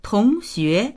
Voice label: "tong2xue2", voices

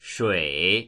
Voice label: "shui3", voices; 水

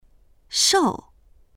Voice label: "shou4", voices; 瘦; やせている

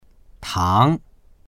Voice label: "tang2", voices

